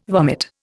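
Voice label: "vomit", Indian English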